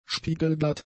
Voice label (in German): "spiegelglatt", German; adjectives